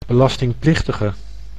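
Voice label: "belastingplichtige", Dutch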